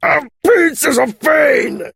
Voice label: scorpion hurt

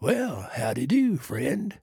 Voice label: trader male like greeting

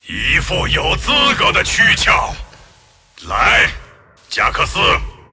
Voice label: move, zh

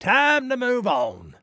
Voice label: trader male neutral sale accepted

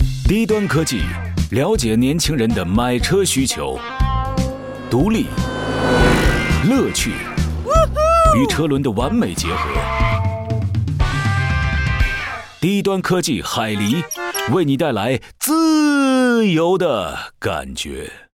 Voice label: Mandarin Ad; 双点校园; 电台广告; Beaver Wheels

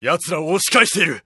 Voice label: Jp Positive

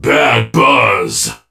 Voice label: surge lightyear hurt; 瑟奇光年

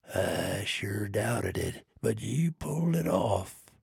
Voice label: trader male neutral quest complete

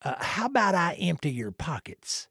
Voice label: trader male dislike greeting

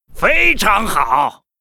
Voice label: Gryphon rider yes zh

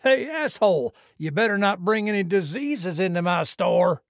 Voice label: trader male dislike greeting

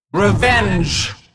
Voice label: Hero female demon hunter morphed yes attack

